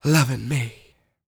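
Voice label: female spoken, R&B Dance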